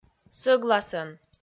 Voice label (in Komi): "сӧгласӧн", Komi